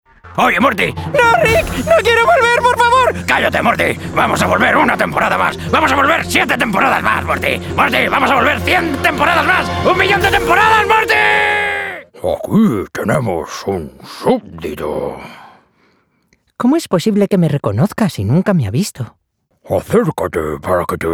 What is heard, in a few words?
Cartoon, Commercial, Impressions, Bright, Upbeat, Conversational, Spanish; Cartoon Reel